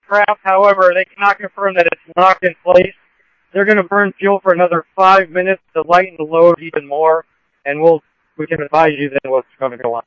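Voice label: Mesa Police Department 5 Dispatch, scanner audio; MESA PD 5 Disp; Mesa Simulcast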